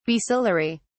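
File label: "basilary", British English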